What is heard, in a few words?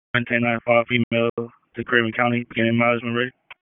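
Dispatch, p25; WSO DISP 3; wake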